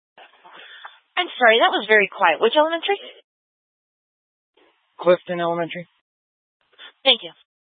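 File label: Sheriff Dispatch, scanner audio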